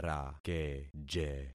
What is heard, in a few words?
"rakeje", concat syllables; Affixing experiment